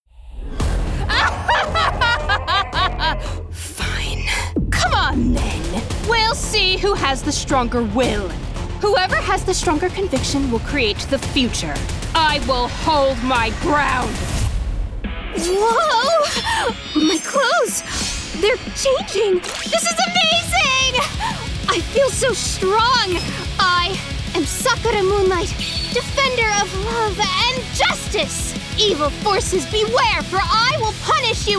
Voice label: Animation, voice-over; Demo